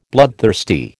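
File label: "bloodthirsty", American English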